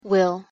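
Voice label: pronunciation "will", English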